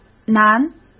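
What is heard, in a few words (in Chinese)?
"南", Mandarin Chinese; hsk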